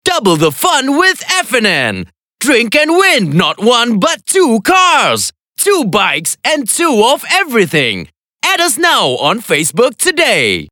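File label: Male VO English Announcer Energetic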